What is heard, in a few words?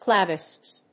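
"Claviceps", English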